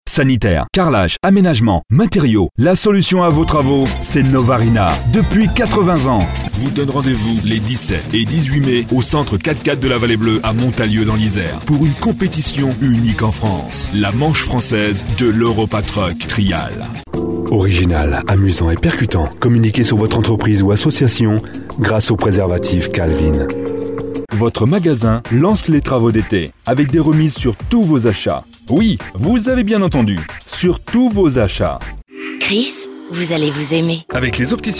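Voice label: French, male, commercial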